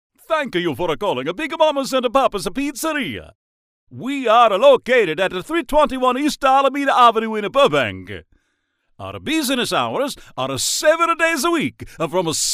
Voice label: Italian Accent, foreign accent voice overs; Italian Accent / Voice Overs / Male voice overs; foreign languages